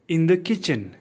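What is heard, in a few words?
"kitchen", English; simple machine new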